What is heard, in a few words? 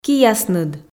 "киясныд", Komi